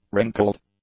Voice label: "wrinkled", english; adjectives